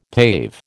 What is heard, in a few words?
"pave", American